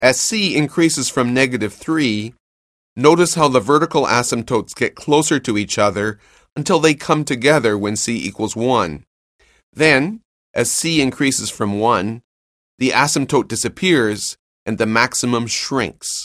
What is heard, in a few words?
narration; CALCULUS 7E; cengage